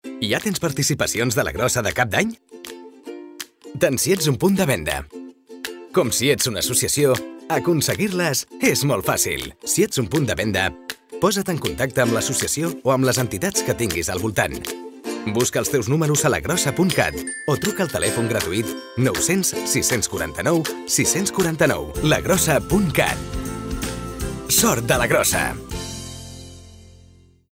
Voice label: male catalan lotery comercial; ideasonora